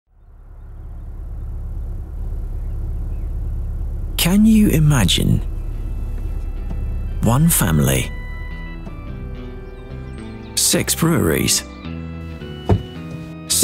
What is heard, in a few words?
Security Management corporate video voice over young UK male voice over; Nedap